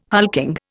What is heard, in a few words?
"hulking", Indian